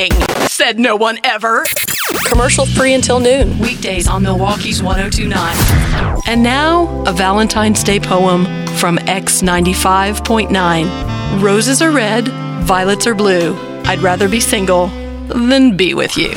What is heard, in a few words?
Rock Imaging